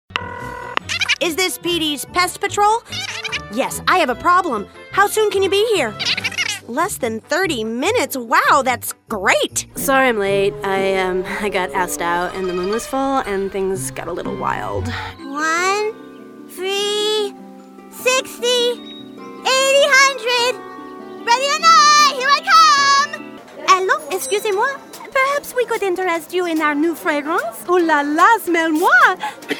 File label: animation